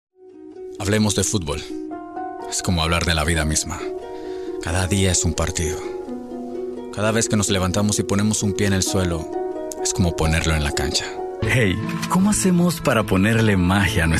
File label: Voiceover